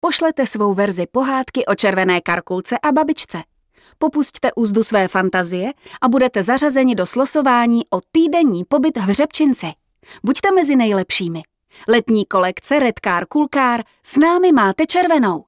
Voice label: czech f commercial